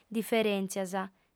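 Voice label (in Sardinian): "diferèntzias", female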